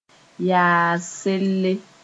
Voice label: "yaas-selle", Moore